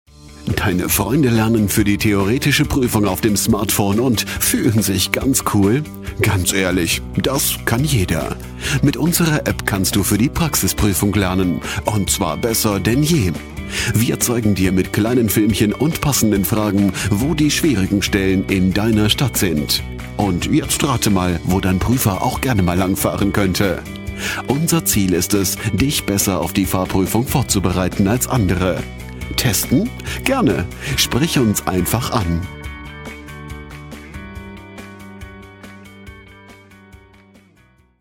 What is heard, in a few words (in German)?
Werbevideo